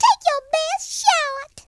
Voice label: comeonthen, Southern Belle; speech

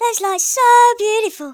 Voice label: collect, Hippy

